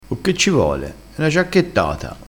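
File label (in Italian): "giacchettata", dialetto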